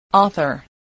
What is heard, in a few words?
"author", english